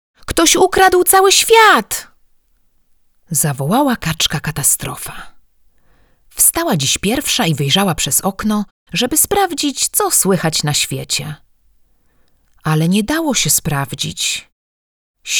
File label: Female Polish Voice Narration Kids